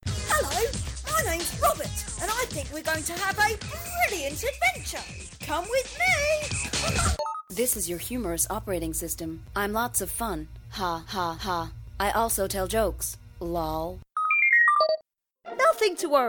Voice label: Animation